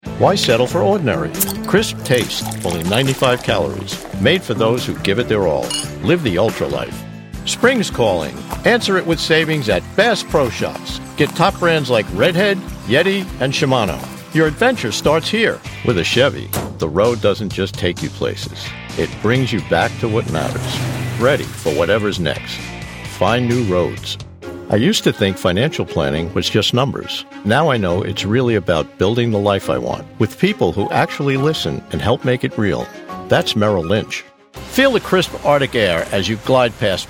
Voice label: Commercials, Male Voice Over Talent